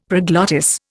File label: "proglottis", Indian; Dictionary